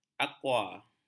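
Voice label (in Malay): "akua", Malay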